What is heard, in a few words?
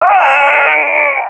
death, balkan